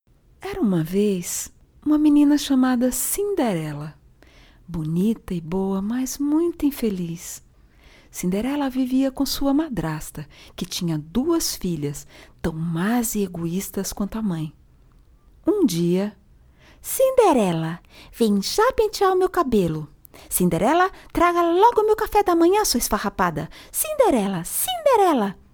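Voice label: Portuguese Brazilian F Childrens Narration, female; voice over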